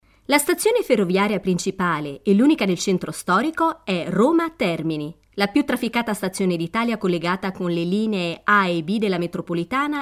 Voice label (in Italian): locutora italiana italian voiceover